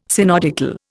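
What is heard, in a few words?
"synodical", Indian English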